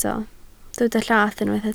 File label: "llath", Welsh